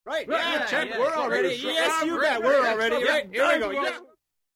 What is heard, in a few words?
People arguing